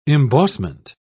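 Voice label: "embossment", British English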